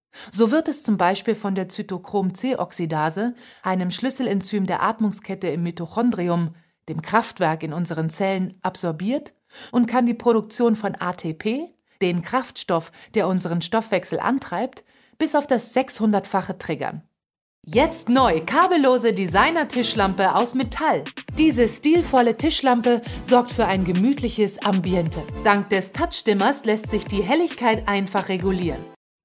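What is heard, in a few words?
German Female Voice Over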